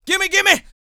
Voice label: male spoken; R&B Dance

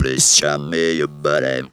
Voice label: "please show me", Funky Vocals; USB Soundscan vol.18; AKAI

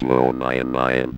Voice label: ROBO VOX; Masterbits - Tekkno Trax

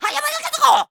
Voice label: "what", shouts; Best Service; Hyper Dance

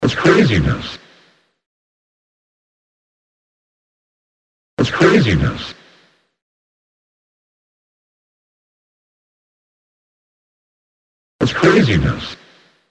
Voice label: voice FX, industrial

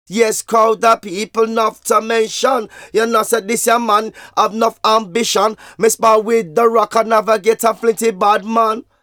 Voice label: Vocals; Jungle Frenzy 1

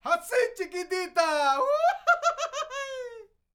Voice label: MEXICO VOICE, MEXICAN; ILIO; Vocal Planet VOL-5

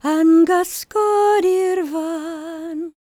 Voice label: WELSH F, World Voices

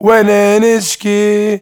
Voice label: MAN VOICE, Arabian Traditions

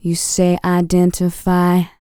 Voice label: FEM SPOKEN; ILIO; Vocal Planet VOL-4; R&B & Dance

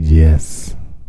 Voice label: "YES", male vox; Hip Hop Nation

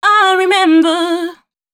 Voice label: female d 120bpm, short hooks, Dance Voice; Mutekki Media; Dance Ultra Voice VOL-1

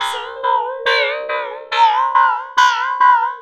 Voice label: dont leave, Vocal Loops; Transmission-X

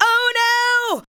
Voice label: ADLIBS 90; Ueberschall; Jam Box

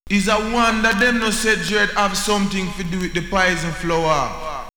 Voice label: Jamaican Poison Floor, vox; X-Static Goldmine

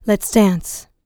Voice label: L LICKS, FEM SPOKEN, R&B Dance; Spectrasonics Vocal Planet

